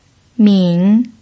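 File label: "min", pinyin, third tone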